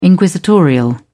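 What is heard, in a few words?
"inquisitorial", British English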